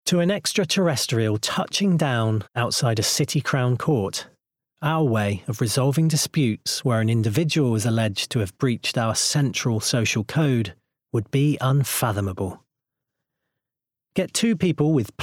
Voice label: Bookread